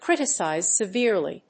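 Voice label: "criticize severely", English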